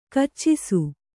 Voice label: "ಕೆಚ್ಚಿಸು", Kannada

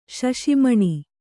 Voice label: "ಶಶಿಮಣಿ", Kannada; śaśi maṇi; noun

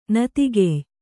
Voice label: "ನತಿಗೆಯ್", Kannada

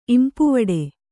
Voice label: "ಇಂಪುವಡೆ", Kannada

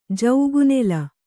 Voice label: "ಜೌಗುನೆಲ", Kannada

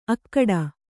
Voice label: "ಅಖೋಟ", Kannada

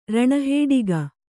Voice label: "ರಣಹೇಡಿಗ", kannada

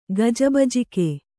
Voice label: "ಗಜಬಜಿಕೆ", Kannada

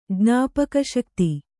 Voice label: "ಜ್ಞಾಪಕಶಕ್ತಿ", Kannada

